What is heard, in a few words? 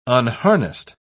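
"unharnessed", British English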